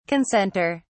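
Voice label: "concentre", British English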